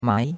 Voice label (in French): "mai2", chinois; Cours-de-Chinois